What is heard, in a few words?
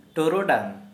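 Santali, male, bamboo fishnet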